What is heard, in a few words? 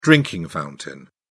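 "drinking fountain", British English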